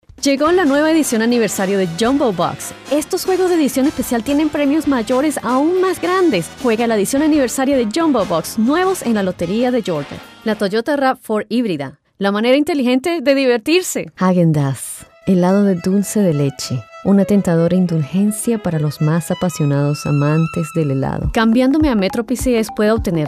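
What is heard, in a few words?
Commercial Spanish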